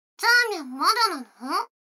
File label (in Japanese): 女の子 台詞